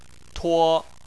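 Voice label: "tuo", Chinese, first tone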